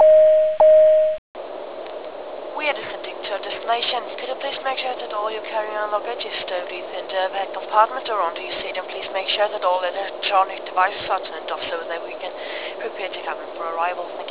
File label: descent, announce; A350